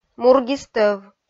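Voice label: "мургис тӧв", Komi, female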